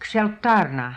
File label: "Ksheltarna", pronounciations; Fourth Way; Beelzebub